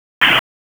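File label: Raleigh Police Southeast District Dispatch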